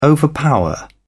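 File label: "overpower", British English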